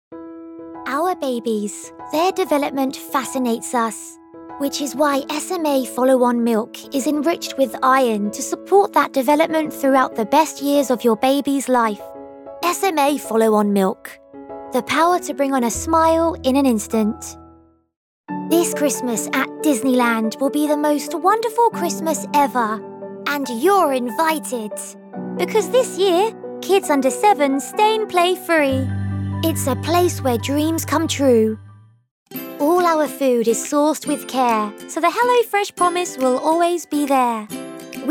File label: Commercial